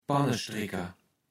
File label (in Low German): "Pannestrikker", Platt